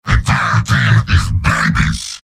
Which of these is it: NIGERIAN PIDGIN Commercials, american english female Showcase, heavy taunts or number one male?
heavy taunts